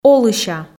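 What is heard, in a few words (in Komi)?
"олыся", Komi, female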